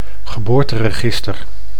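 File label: "geboorteregister", Dutch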